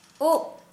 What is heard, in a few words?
Santali, hair